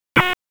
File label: PS TAC, p25; wake